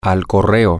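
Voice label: to the post office, spanish, male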